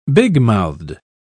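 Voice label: "bigmouthed", British English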